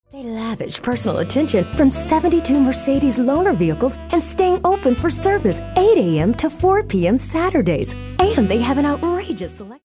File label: classy